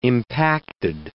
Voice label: "impacted", English

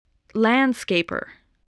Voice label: "landscaper", English

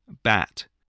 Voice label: "bat", English

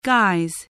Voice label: "guise", spelling

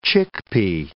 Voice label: "chickpea", English

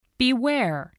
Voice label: "beware", English

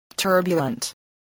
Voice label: "turbulent", voice

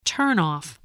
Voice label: "turn-off", voice

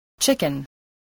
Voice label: "chicken", spelling

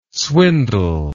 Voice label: "swindle", English word

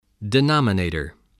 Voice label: "denominator", English

